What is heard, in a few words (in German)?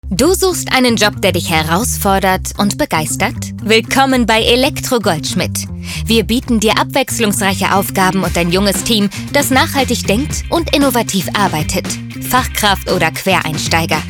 Funkspot; Elektro-Goldschmidt